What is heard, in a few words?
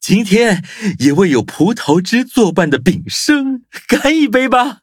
levelup, zh-hant; cookie run